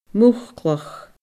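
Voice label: "muclach", Scottish Gaelic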